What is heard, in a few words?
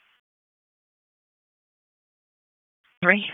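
Police Southwest District Dispatch, p25; Raleigh Police Southwest District Disp; wake p25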